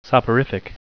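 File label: "soporific", English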